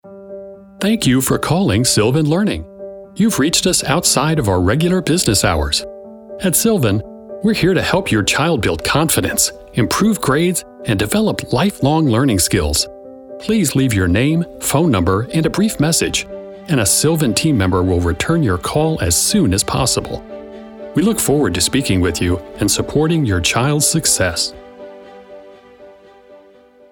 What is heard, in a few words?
Upbeat and Encouraging; voiceover; voiceover professional in St. Louis, Missouri